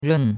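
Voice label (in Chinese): "ren4", Chinese; Cours-de-Chinois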